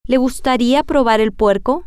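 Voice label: would you like to try the pork, Spanish, female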